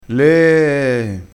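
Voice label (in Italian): "lee", vocabolario dialettale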